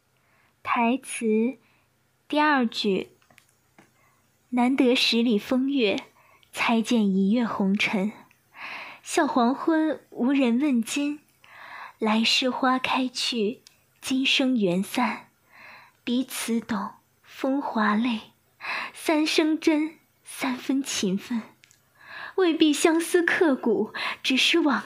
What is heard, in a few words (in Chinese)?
伤感少女音